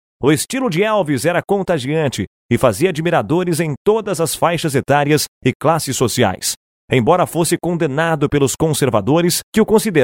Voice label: locutor brasil portuguese brazilian voiceover, locutor brasileño